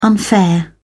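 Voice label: "unfair", English, uk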